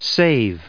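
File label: "save", English, us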